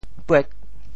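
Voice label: "puek8", Teochew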